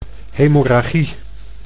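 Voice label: "hemorragie", Dutch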